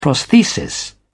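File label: "prosthesis", British English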